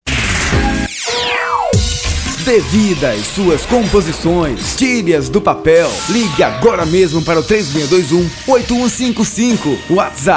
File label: spot